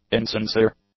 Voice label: "insincere", English; adjectives